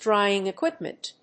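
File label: "Drying equipment", English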